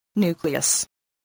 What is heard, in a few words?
"nucleus", voice, spelling